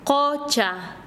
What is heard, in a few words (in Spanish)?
"laguna", Quechua Chanka